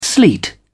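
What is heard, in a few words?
"sleet", British English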